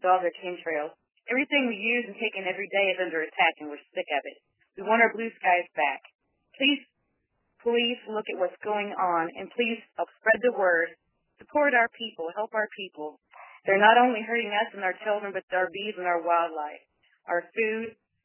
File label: voice message